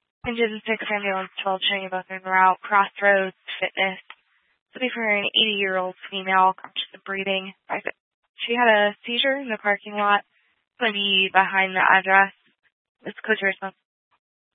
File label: Fire Department Dispatch, scanner; Grand Junction